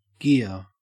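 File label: "gear", Australian English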